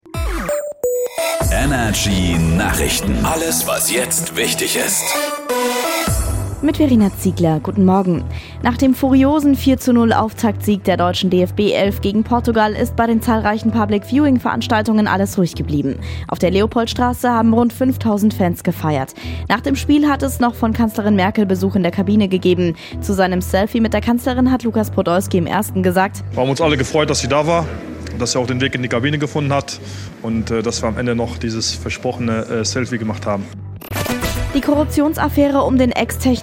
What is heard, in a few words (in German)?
News